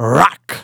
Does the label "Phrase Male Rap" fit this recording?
Yes